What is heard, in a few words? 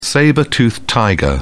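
"sabre toothed tiger", English, British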